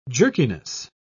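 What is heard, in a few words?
"jerkiness", British English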